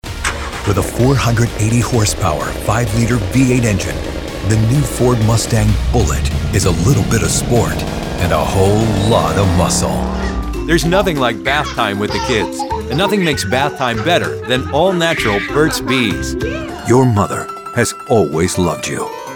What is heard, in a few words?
voice Commercial